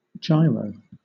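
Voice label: "gyro" British English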